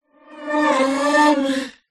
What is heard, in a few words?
horror voice ghostly says "mummy"